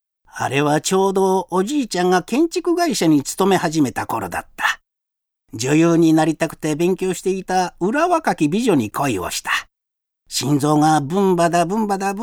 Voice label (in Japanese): 老人